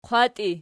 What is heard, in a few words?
"qwattii", Archi